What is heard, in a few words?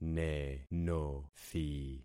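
"nenothi", concatenated syllables; Affixing experiment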